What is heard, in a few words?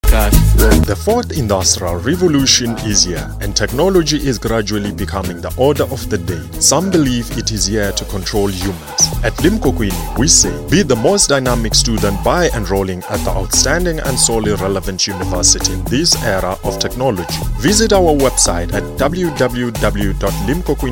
English voice over advert; Limko